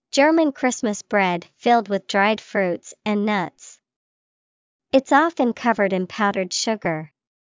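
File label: Stollen, English vocabulary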